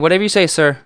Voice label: plead; Messiah